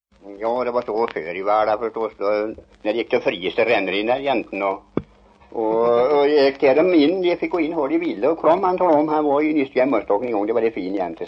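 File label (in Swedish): Järnskog Värmland, dialekter, Svenska sagor; Folkminnen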